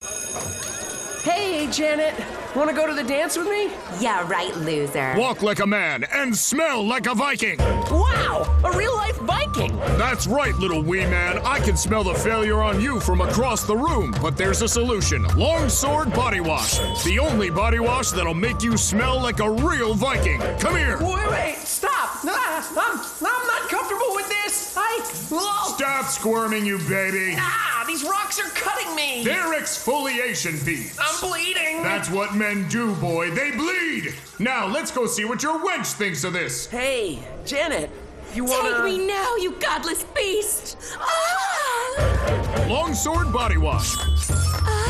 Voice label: Body Wash (Commercial); Gangstar Vegas; Android iOS gamerip 2013; Long Sword Body Wash